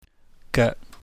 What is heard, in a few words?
"geuk", chinese